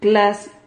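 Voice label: "claz", Spanish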